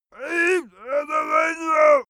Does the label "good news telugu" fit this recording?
No